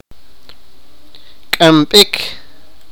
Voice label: sipakapense; carry